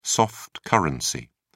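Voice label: "soft-currency", British English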